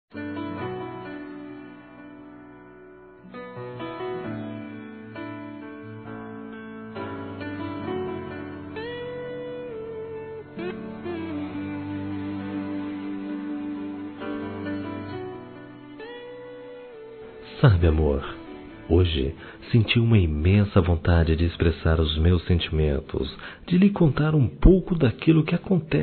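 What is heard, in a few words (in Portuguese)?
Namorados pra Alma Gêmeas, male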